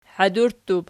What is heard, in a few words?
"hadurttub", Archi